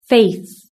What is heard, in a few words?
"faith", british english